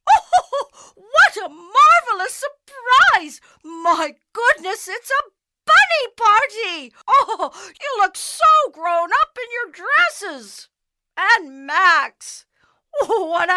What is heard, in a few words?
CARTOON VOICE